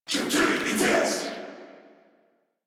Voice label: Attack Sniper, en